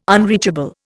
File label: "unreachable", Indian